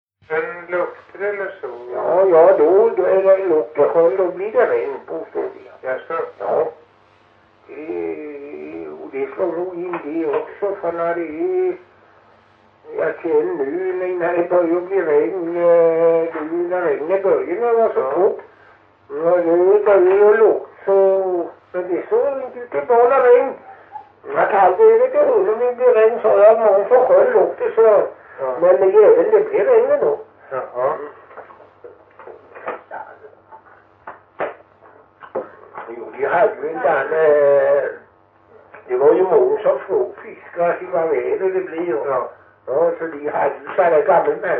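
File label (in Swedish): Hulterstad Öland, dialekter; Folkminnen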